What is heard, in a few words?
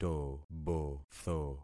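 "tobotho", concatenated syllables; Affixing experiment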